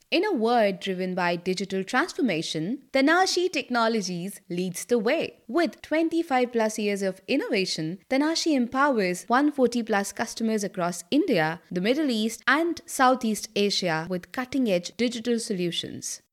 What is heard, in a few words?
vo promo